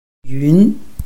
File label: "yun2", Chinese